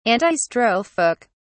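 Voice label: "antistrophic", British English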